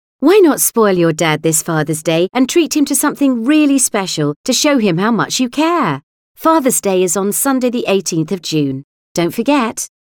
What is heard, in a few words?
UK Fathers Day Female